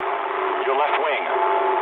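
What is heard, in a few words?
English left wing mood low; speech; War Thunder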